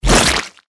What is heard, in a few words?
disgusting